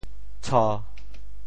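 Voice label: "tsho1", Teochew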